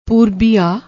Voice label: "poorbia", Punjabi